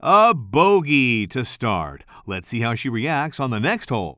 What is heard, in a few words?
commentator